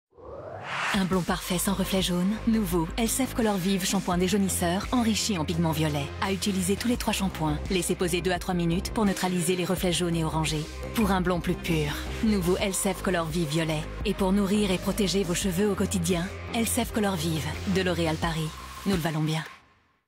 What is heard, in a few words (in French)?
Voix Off Pub; cheveux blonds; Elseve Color Vive; L'Oreal Paris